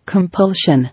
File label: "Compulsion", English